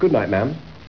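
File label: good night ma'am, Male voice, "Good night, Ma'am."; replacement event sounds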